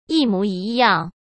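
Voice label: "一模一样", Mandarin Chinese; hsk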